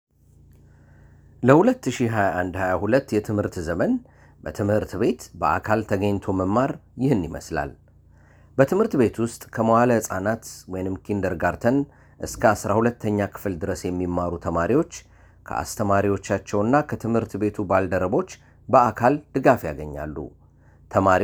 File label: Script Amharic